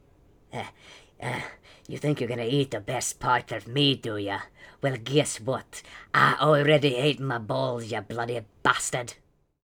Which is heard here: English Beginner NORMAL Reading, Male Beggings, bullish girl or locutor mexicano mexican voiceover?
Male Beggings